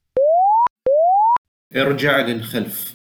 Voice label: Backup with effects Arabic